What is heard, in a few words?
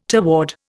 "toward", Indian